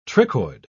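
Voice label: "trichoid", British English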